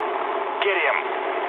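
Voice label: English attack position mood low; War Thunder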